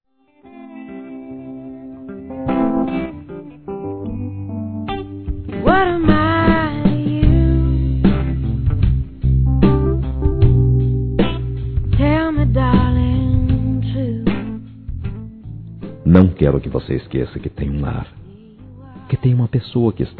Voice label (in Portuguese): Volta para Casa Masculino